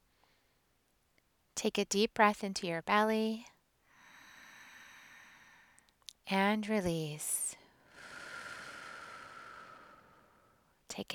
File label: shielding meditation